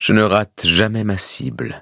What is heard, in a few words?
levelup, fr; Cookie Run